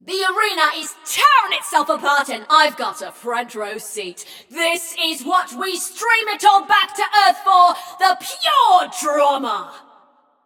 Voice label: Scrap Run Entire Arena Nearly Gone, Announcer